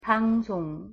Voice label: "방송", Korean; pronunciation